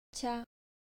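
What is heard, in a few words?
"cha", Japanese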